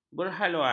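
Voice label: "berhaluan", Malay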